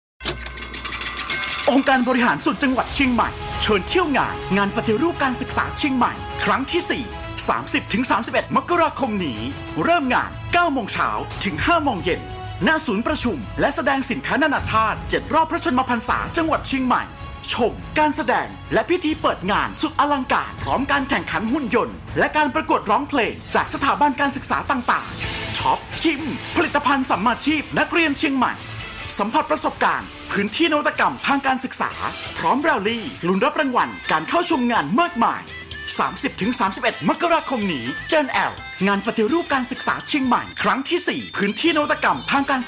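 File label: Thai, male, commercial; voice-over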